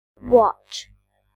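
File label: "watch", British English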